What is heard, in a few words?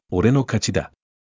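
anime, Japanese, Hilarious Anime Quotes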